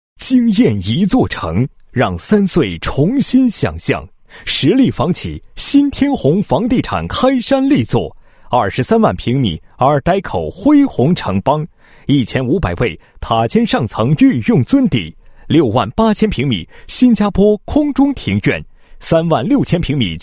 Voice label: 男 房地产广告 认筹期巡展广播; 新陆国际认筹期巡展广播

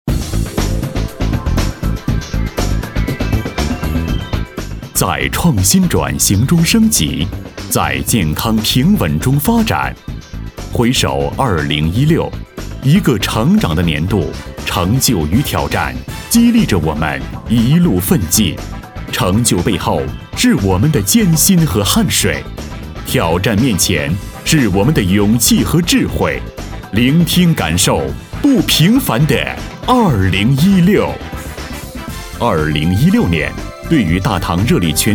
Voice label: 男 专题 颁奖表彰

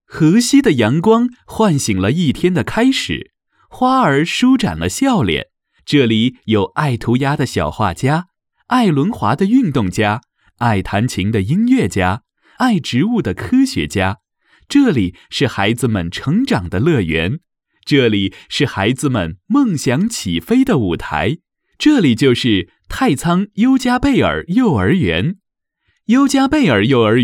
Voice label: 年轻温暖, 亲和力, 幼教幼儿园专题; 优佳贝尔幼儿园

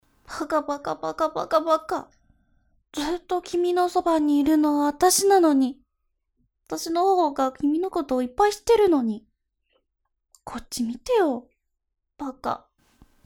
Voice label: 日语女 动漫样音

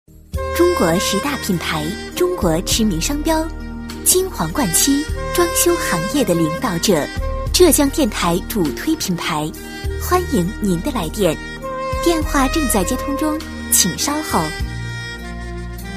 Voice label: 女, 彩铃女声, 彩铃; 金皇冠漆

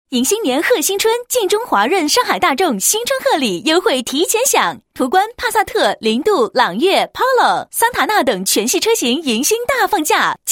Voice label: 女 汽车广告; 晋中华润上海大众

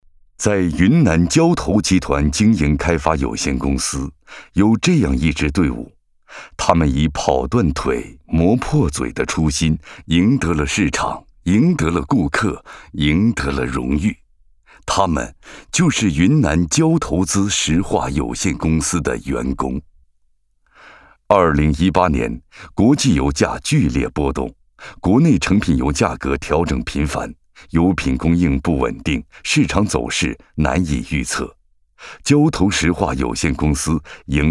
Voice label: 男, 感动中国, 风格的感动; 交投

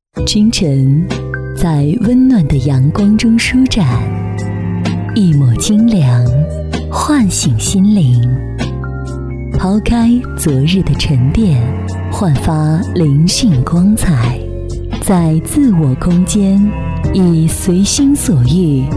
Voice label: 女 电台广告, 国语女声配音; 绿太阳卫浴

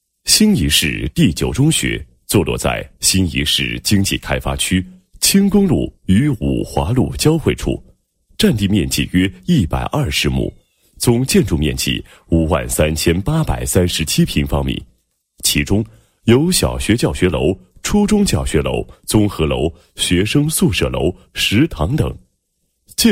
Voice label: 男 学校 简介; 新沂市第九中学

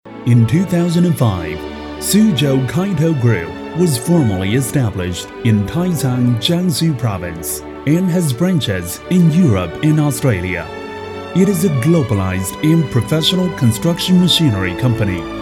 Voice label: 英男, 英文, 宣传片

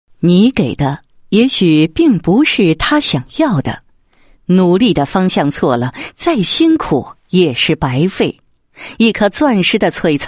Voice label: 女 教育 孩子教育宣传

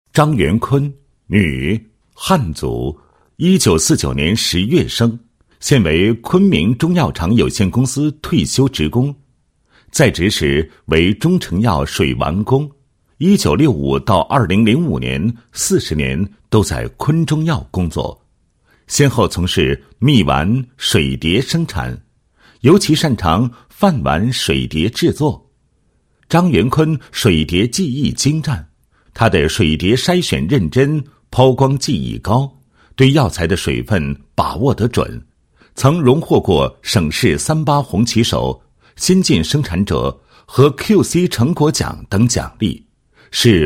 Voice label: 男 人物 国家级非物质文化遗产传承人, 配音案例

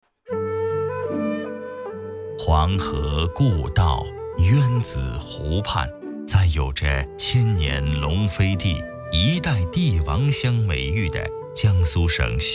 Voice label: 纪录片, 沉稳纪录; 千年古镇

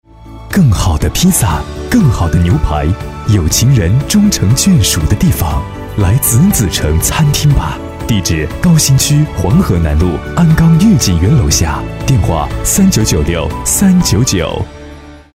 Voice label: 广告 温暖 热情 餐厅, 国语男声配音; 大气沉稳; 香瑞子子橙从餐厅

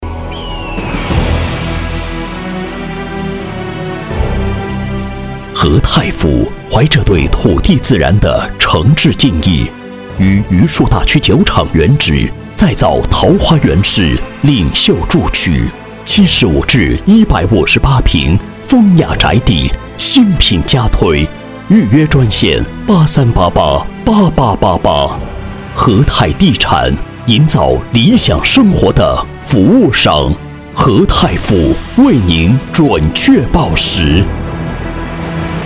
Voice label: 男, 房产, 深邃大气

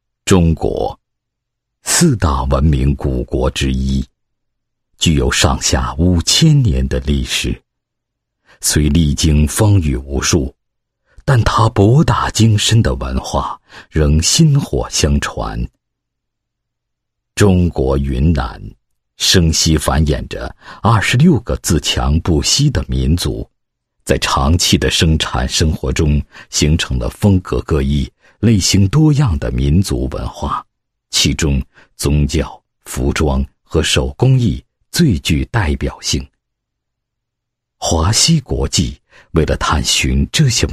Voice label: 男 企业 宣传印象; 华熙国际宣传印象

